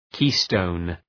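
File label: "keystone", English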